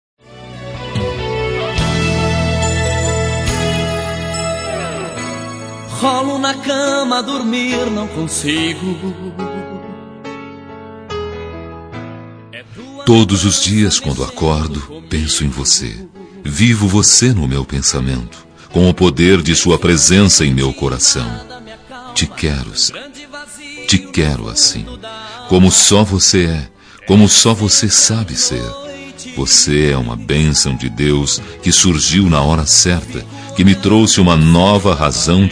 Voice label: ROM SUAVE MASC

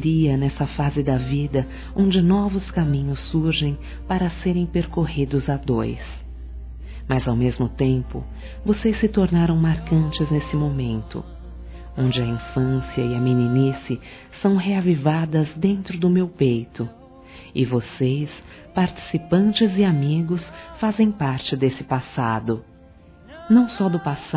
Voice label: AGRADEC AOS PAIS F; Casamentos; fem; Telemensagens

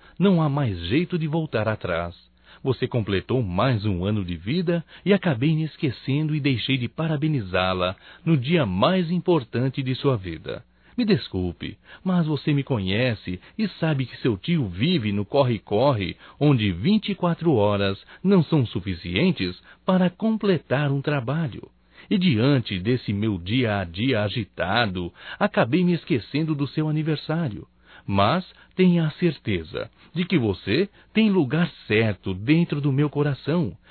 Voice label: desculpas sobrinha, masc; Telemensagens 2017

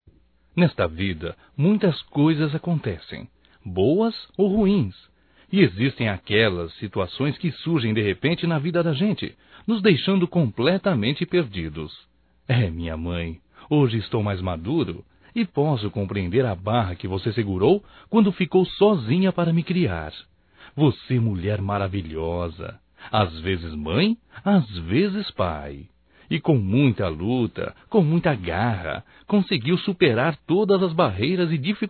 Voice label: Aniversário Mãe como Pai Masculino

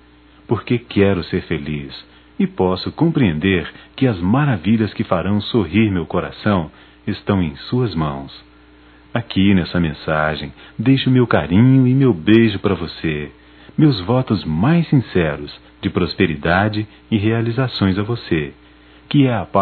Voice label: Ano novo romantico m; Natal e ano novo; Telemensagens 2017

